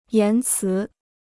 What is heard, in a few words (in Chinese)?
"言辞", Chinese